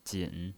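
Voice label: "jin", third tone, pinyin voice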